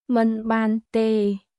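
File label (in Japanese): クメール語（カンボジア語）の日常会話基本フレーズ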